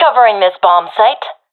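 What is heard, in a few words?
guarding bombsite, professional female; vo; Counter Strike Model Pack; CS:GO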